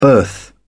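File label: "birth", British English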